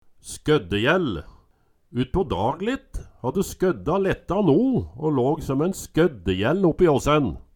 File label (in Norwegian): skøddejæll, Numedalsmål